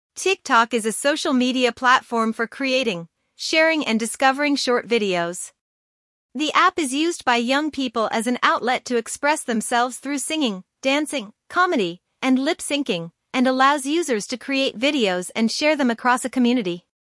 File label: podcasts example